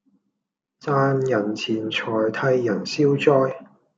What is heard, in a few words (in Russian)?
Голоса, Гонконгский